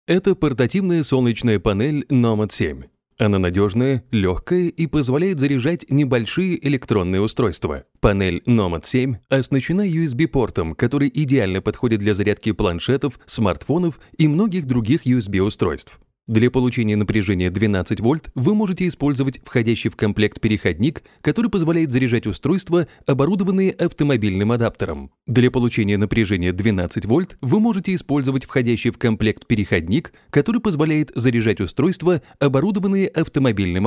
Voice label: Documentary

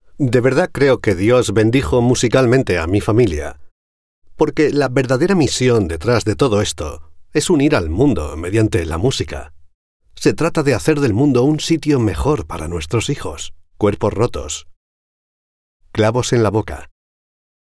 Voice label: documentary dubbing

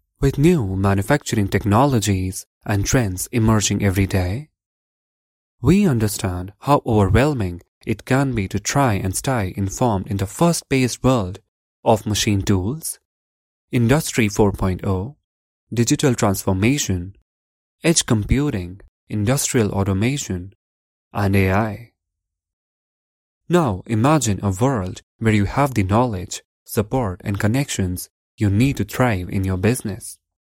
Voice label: Australian Accent

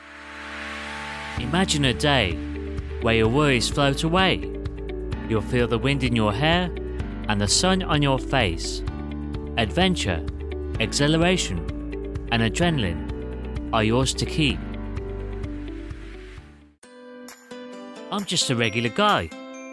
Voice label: voice over

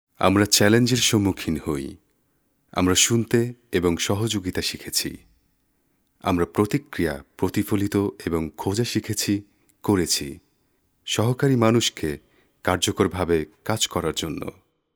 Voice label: Bengali Commercial

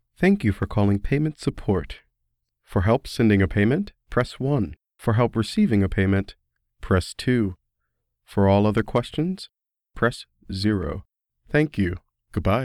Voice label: IVR

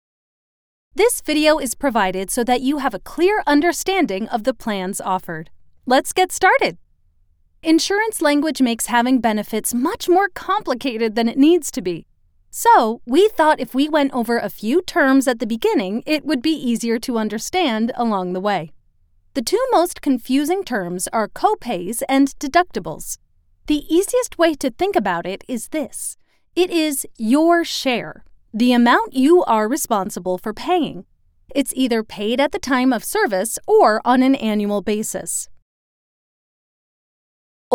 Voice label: Corporate Training Modules Voice Sample

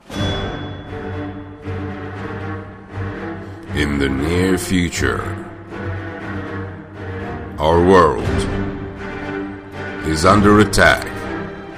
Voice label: Movie Trailer

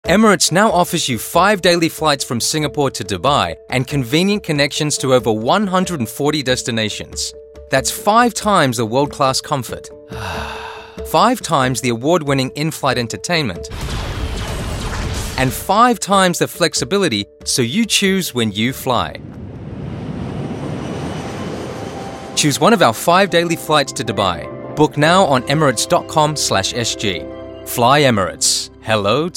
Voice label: radio; Emirates; Dubai

